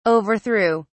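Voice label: "overthrew", English, British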